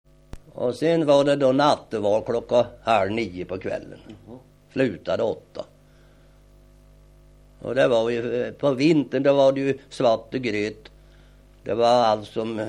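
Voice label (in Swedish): Norra Vi Östergötland, dialekter; Folkminnen; Svenska sagor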